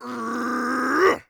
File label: heavy attack, orc voice; Orc Voice Pack